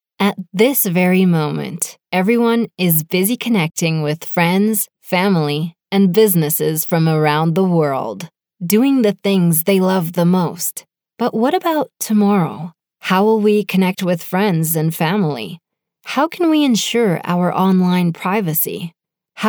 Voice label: Explainer; CheckAFace